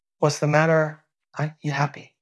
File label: Voice Acting, es